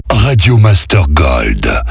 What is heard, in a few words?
Accap slow; Radio Master Gold; Voix off